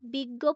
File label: Female